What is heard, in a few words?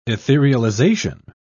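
"etherealization", British English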